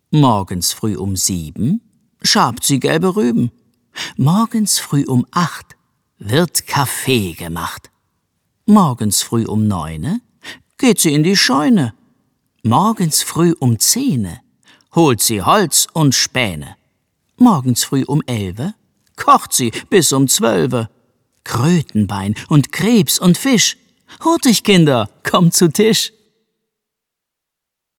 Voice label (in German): Deutsch, kinderverse